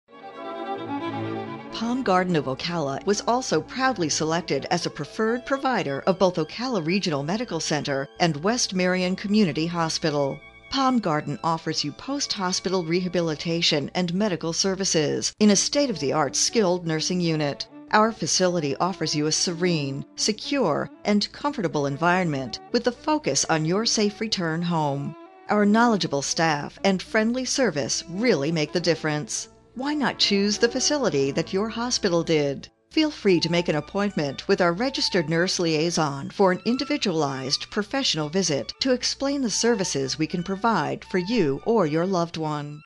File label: On Hold; medical dental; Palm Garden Of Ocala